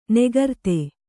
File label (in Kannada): "ನಿಕೃತ", Kannada